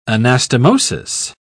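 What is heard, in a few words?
"anastomosis", English, British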